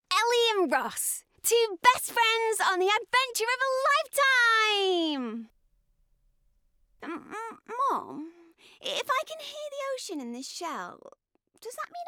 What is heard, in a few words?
Animation